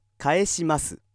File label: male